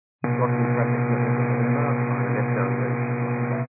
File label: 122 725MHz, scanner radio